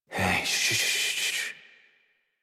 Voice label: Horse Calm Adult; Warframe; Duviri

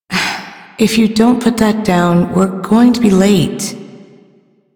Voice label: Female; Duviri Ambient

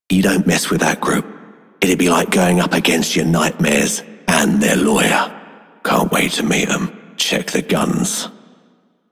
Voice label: Male Repo, Male One, en; Dialog; Warframe; Solaris Venus

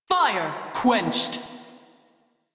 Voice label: Crew Fix Fire, Female; Dialog; en; Warframe; Railjack Crew; New Loka

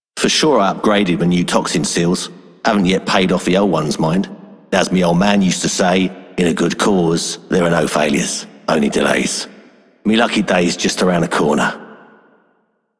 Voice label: Male Dreams, Male One, en; Dialog; Warframe; Solaris Venus

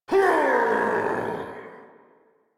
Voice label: angry, en; Warframe; Tyl Regor Boss; Efforts

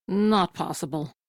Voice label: Crew Reject, Female; Dialog; en; Warframe; Railjack Crew; Steel Meridian

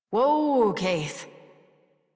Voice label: Horse Stop Walk Adult; Warframe; Duviri

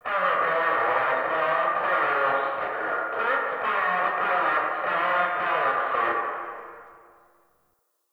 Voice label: Distant PA Voice; Warframe; Ambience; Entrati; Dante Unbound Leverian

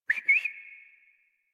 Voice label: Horse Start Adult; Warframe; Duviri

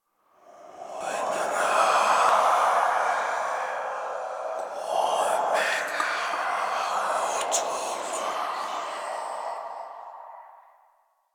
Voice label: Whisper; Warframe; Ambience; Entrati

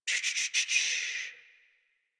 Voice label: Horse Calm Adult; Warframe; Duviri

